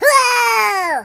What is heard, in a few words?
"Kamikaze", Surfer voice; hedgewars